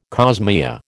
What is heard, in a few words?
"cosmea", American